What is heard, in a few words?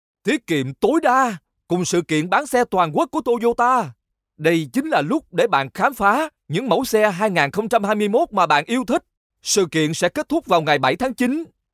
vietnamese voice commercial